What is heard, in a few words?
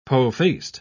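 "po-faced", British English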